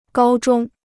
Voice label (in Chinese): "高中", Chinese